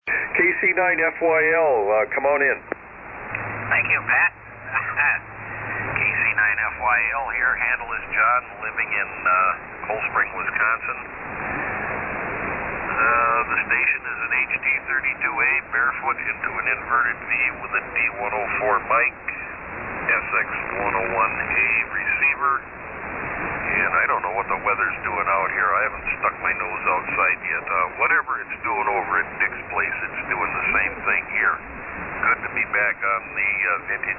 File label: Hallicrafters HT-32A SX-101A D-104 Mic; Fort Atkinson, Wi.